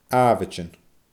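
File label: "aabhaidean", Scottish Gaelic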